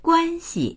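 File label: "guan1xi", Mandarin Chinese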